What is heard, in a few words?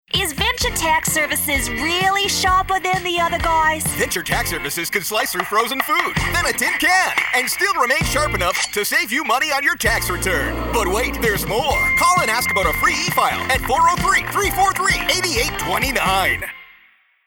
Infomercial Radio Campaign; Infomercial Radio Campaign Series